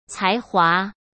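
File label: "才华", Mandarin Chinese; chinese-flashcards; hsk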